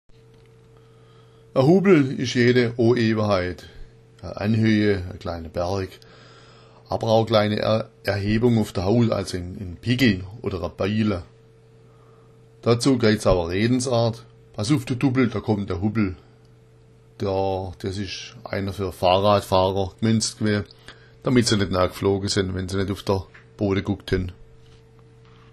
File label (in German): "hubbel", schwaebisch